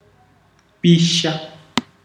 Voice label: "burn", ndau

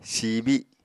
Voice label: "siibi’", Apatani; [siibiɁ] adj. shrivel; wither; wilt

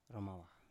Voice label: "romawa", Biak

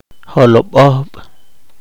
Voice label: poqomchi; mamey

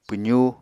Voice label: "pwzú", Apatani

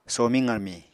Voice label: "somiñ qarmiñ", Apatani

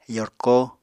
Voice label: "yorko", Apatani

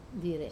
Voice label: "dire", edge, Wamesa

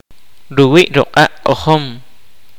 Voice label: kaqchikel; Pipes on marimba

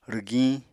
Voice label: "rwgiñ", Apatani

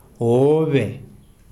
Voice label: "fish", Kalanga

